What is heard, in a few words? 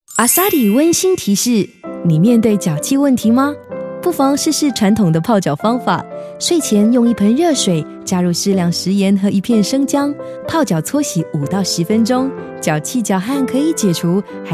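Mandarin Soft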